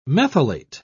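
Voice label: "methylate", English, British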